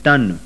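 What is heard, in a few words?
voiceless unaspirated retroflex, sindhi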